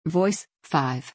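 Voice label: "voice", English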